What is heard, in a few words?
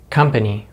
"company", English; amerikanischen Englisch; easypronunciation; Consonants in American English